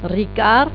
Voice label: "ricard", Occitan

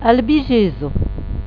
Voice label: "albigesa", Occitan

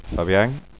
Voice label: "fabian", Occitan

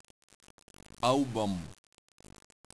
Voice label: "auban", Occitan

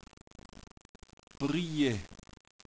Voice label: "priest", Occitan

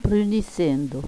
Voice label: "brunisse", Occitan

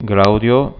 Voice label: "glaudia", Occitan

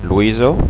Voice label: "loisa", Occitan

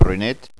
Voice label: "brunet", Occitan

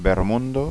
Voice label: "bermonda", Occitan

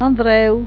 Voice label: "andreu", Occitan

